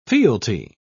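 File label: "fealty", British English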